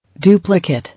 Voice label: "Duplicate", English

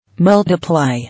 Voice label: "Multiply", English

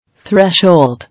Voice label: "Threshold", English

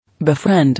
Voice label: "Befriend", English

